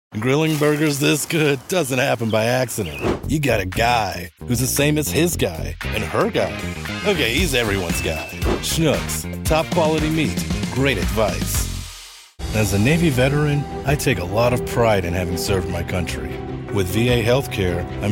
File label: Commercial